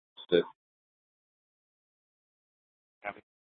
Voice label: Mesa PD Fiesta Dispatch

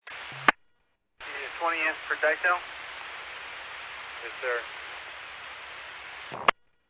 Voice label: Medevac, scanner; NativeAirAmb

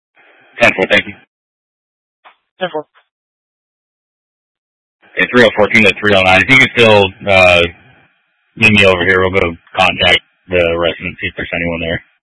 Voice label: Scottsdale Police, Simulcast

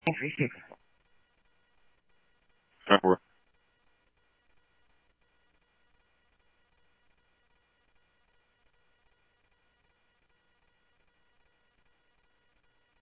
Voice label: Police, scanner audio trunk; H-Scottsdale; SCTSDLE PD - PD1 ScottsdalePolice

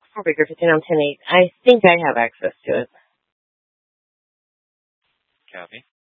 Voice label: Mesa PD Dispatch

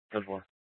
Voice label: Dispatch; Scottsdale

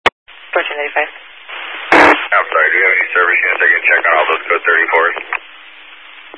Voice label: Highway Patrol, scanner; DPS EAST; Dist 13 Phoenix Metro East Area

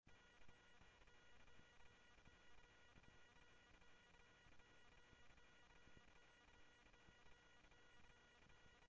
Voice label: Interop Mutual Aid, scanner; G1 Interop MutualAid-InterS; ThompsonPeakHigh

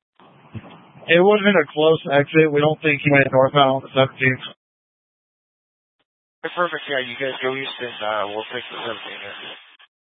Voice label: Police Dispatch

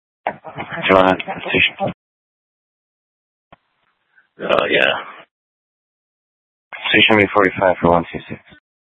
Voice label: Sheriff, scanner; Maricopa County (P25) - Thompson Peak; Shrf Mnt (Parks)

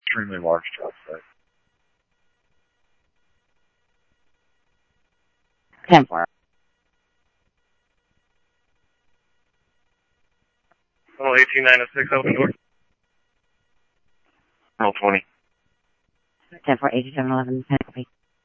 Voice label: Scottsdale Police, scanner audio

